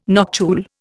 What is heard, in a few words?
"noctule", Indian